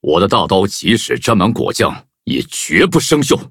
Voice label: touch, zh-hant; Cookie Run